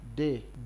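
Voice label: "day", Nigerian Pidgin, male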